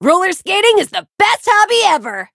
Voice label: touch, en; cookie run